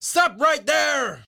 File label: Orders Shout Stop Right There